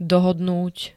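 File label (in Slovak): "dohodnúť", Slovak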